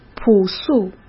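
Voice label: "朴素", Mandarin Chinese; chinese flashcards; hsk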